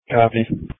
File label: Police Tactical Encrypted